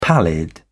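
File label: "pallid", British English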